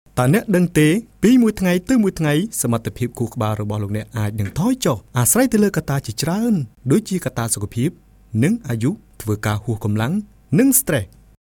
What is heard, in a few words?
KHMER